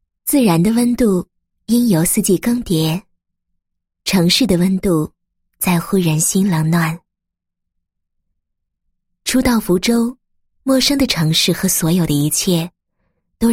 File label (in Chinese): 女 计生 计生服务站; 仓山区计生服务站